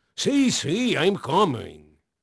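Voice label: Portuguese male, voice; Pirates Of The Caribbean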